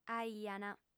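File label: "aiant", lingua sarda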